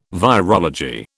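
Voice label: "virology", British English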